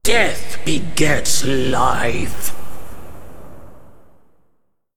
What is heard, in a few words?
Female Attack; Tirnenn